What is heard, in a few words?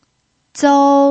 "zou1", pinyin